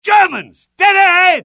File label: alert, UK; mohaa; dialogue